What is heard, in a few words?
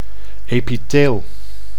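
"epitheel", Dutch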